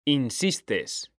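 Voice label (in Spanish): "insistes", Spanish, male; mekanta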